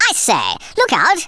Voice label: "grenade", Speech; Worms Armageddon